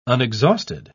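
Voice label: "unexhausted", British English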